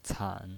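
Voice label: "can", Mandarin Chinese, third tone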